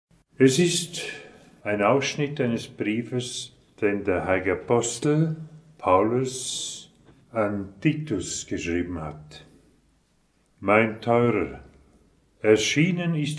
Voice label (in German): Lesung Weihnachten